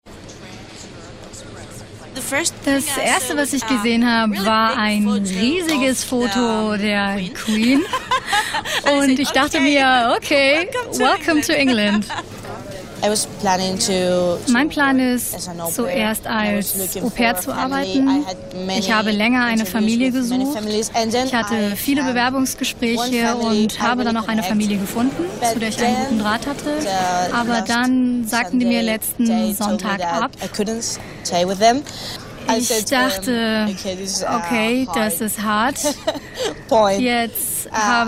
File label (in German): Doku deutsch